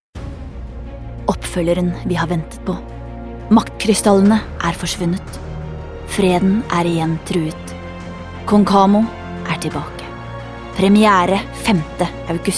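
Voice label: movie trailer, Norwegian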